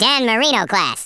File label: "perfect", US Sports